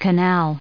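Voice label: "canal", US English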